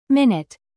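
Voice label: "bass", noun, British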